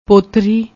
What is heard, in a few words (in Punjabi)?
"pottri", Punjabi